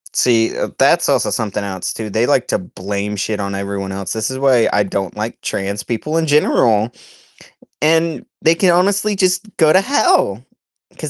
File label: voice message